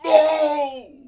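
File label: Warrior Death Vox; Civ4 warlords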